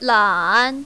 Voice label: "lan3", mandarin, female

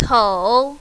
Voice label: "tou3", mandarin, female

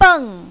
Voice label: "beng4", mandarin, female

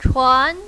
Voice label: "chuan2", mandarin, female

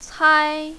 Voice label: "cai1", mandarin, female

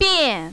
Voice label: "bian4", mandarin, female

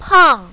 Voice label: "pang4", mandarin, female

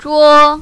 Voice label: "zhuo5", mandarin, female

